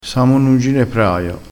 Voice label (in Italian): "ginepraio", vocabolario dialettale